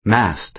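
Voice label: "mast", American English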